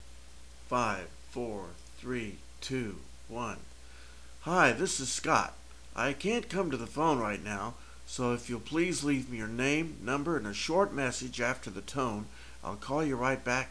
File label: Answering Machine